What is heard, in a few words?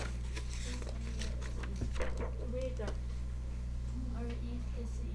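"cartoon", spoken word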